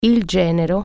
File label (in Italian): "son in law", Italian